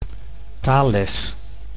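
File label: "taalles", Dutch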